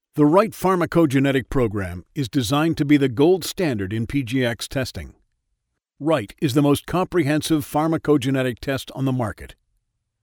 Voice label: english canadian medical narration